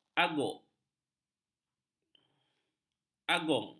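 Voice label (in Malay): "aguk", Malay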